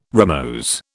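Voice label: "rimose", British English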